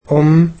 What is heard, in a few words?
"mother", egyptian